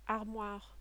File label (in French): "armoire", French